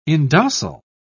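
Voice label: "indocile", British English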